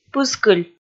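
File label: "пыскыль", Komi, female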